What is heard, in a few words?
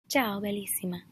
Ciao Bellissima Italian Ringtone